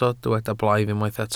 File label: "blaidd", Welsh